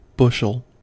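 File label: "bushel", US English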